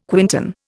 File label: "quintan", Indian